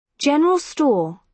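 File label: "general store", British English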